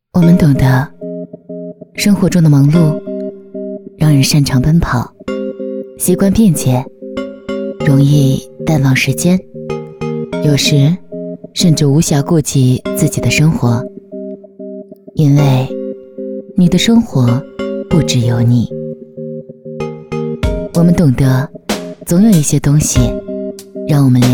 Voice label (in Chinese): 女 独白; 我们懂得